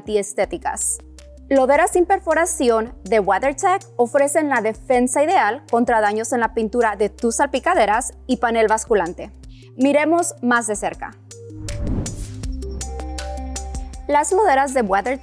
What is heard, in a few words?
Mud Flap Spanish